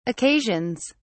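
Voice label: "occasions", British English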